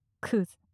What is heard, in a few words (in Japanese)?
クズ・強く・近・左, ASMR